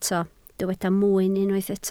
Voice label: "mwyn", Welsh